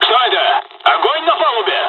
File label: dialogs russian; War Thunder